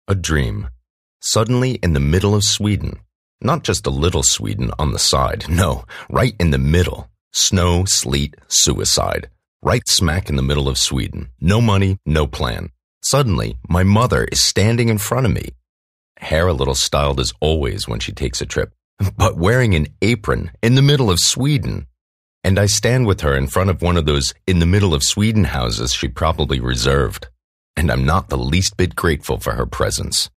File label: EngUS Schauspiel Neutral